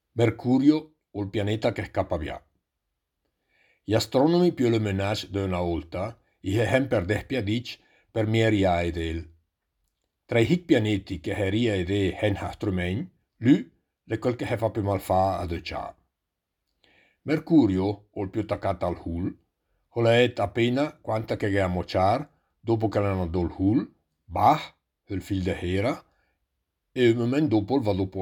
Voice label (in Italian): lumezzanese; Mercurio